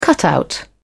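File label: "cutout", British English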